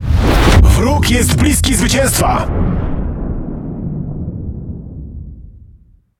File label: Speech Domination Enemy Close Win, PL; World Of Warships